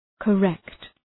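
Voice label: "correct", English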